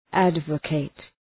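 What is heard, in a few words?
"advocate", English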